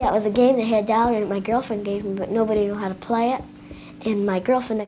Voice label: Conversation with 12 year old white female Detroit Michigan; american english dialect